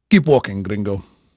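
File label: Spanish male, voice; Pirates Of The Caribbean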